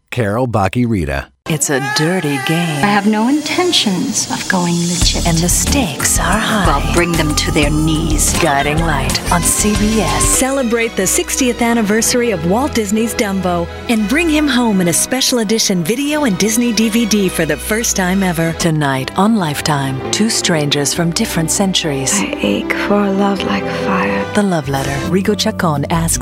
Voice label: women promos